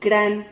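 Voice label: "cran", Spanish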